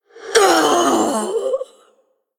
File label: Female Undead Death